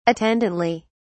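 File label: "attendantly", English, British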